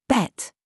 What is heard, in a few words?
DRESS TRAP, minimal pairs